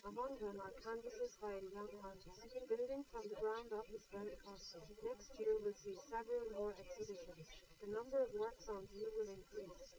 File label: female liverec; SiSEC11; underdetermined